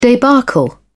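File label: "debacle", British English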